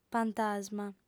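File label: "pantasma" Sardinian, female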